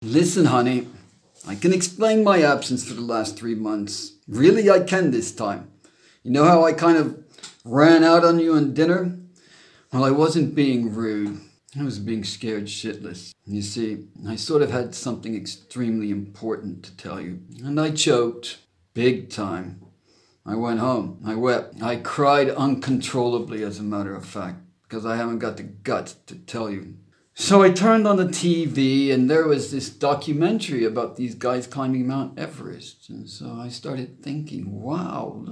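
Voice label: US English Flat West Coast or Mid Atlantic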